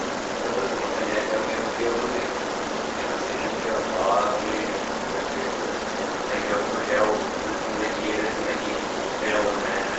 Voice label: Unexplained Male Voice Amplified; (Rodney)